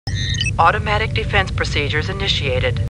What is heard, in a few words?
Computer Voice; Star Trek; StarTrekLCAR